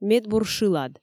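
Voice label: "медбур шылад", Komi, female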